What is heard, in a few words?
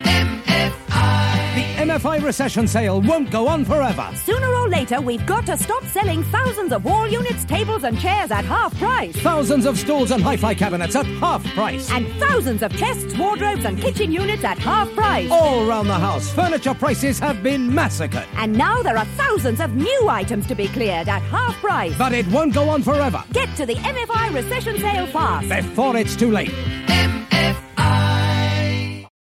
Radio Advert, Sale; Radio Hallam; MFI Sale Forever